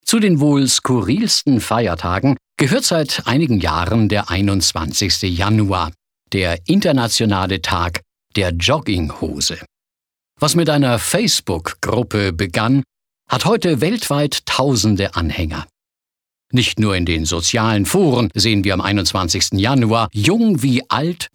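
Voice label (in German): Kommentar Freundlich; Jogginghose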